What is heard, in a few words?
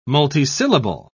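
"multisyllable", British English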